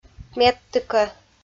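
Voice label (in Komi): "медтыкӧ", Komi-Zyrian, female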